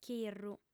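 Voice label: "chirru", female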